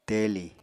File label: "teli", apatani